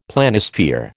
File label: "Planisphere", American